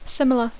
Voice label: "similar", English